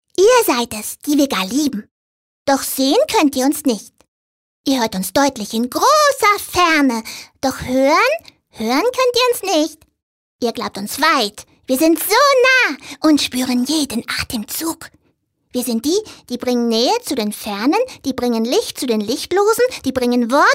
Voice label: Comic, frauen; sprecher

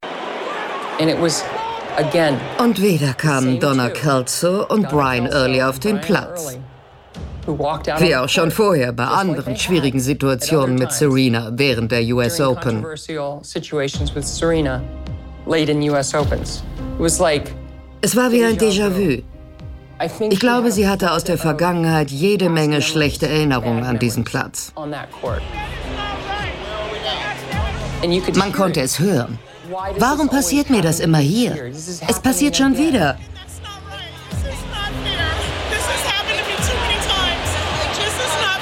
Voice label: Voice Over, frauen; Backstory; SerenaVsThe Umpire

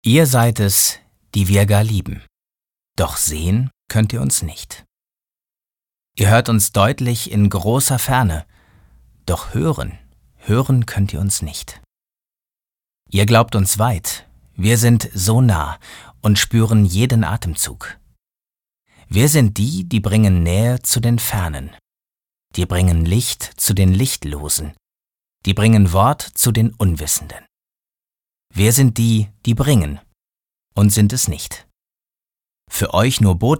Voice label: poetisch, maenner

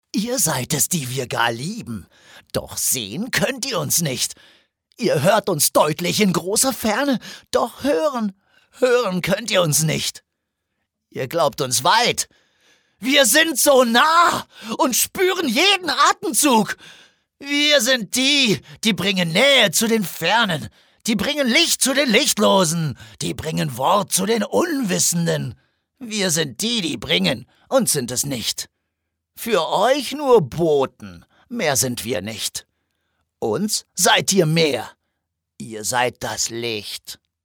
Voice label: agressiv, maenner

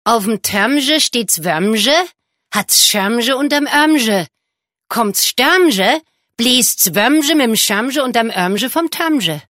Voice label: Hessisch, frauen; sprecher; Wurm

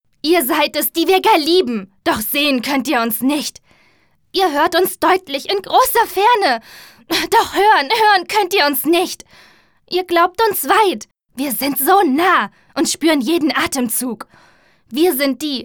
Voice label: aggressiv, frauen

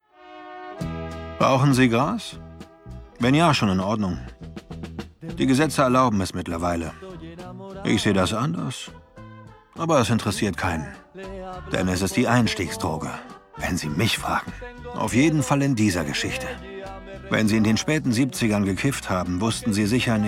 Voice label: Synchron, OFF, maenner; Narcos Mexico

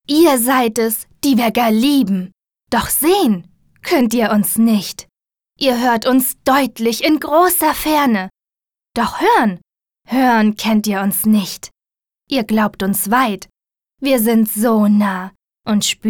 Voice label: poetisch, frauen

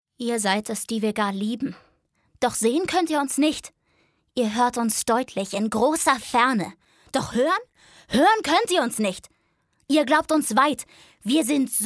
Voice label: aggressiv

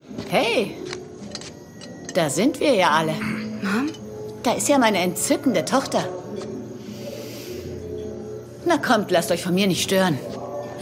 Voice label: Synchron, frauen; Mystery Road

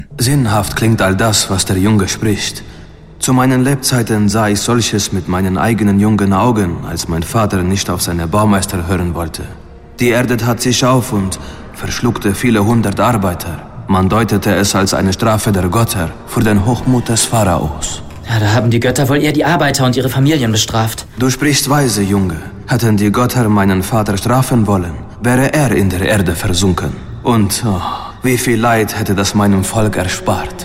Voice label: Hörspiel; Ghostsitter

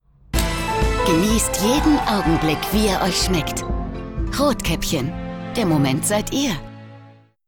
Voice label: Spots Off, frauen; sprecher; Rotkaeppchen

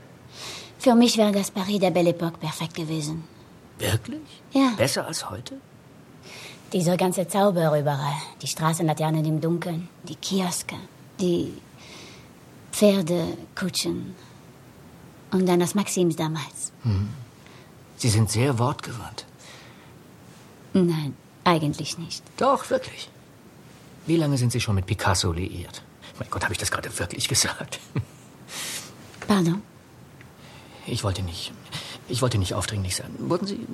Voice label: Akzente Dialekte; MidnightInParis

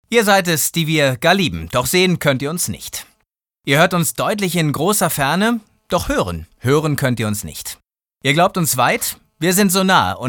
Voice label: Moderator, maenner

